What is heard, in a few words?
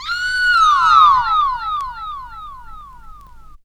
Dirty Call, Vox FX; Zero-G Jungle Warfare 1, 2 & 3